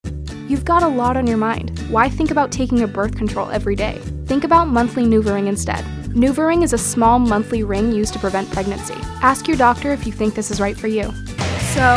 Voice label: women commercial